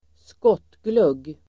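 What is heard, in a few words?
"skottglugg", Swedish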